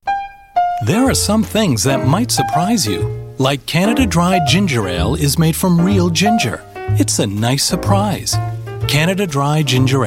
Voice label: Commercial